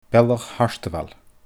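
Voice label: "Bealach Hartabhail", Scottish Gaelic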